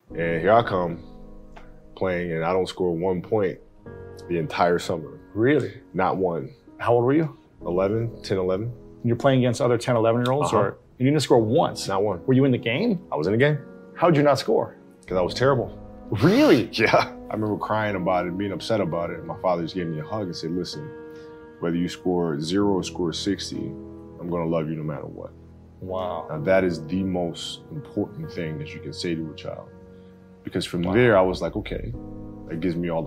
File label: Inspirational Speech; Kobe Bryant Talks About How He Was Terrible At Basketball